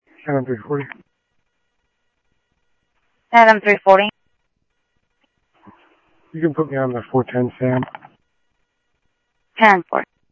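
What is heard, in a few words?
Sheriff West County, scanner audio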